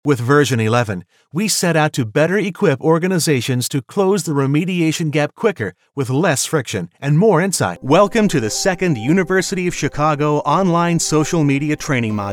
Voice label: Instructional and Precise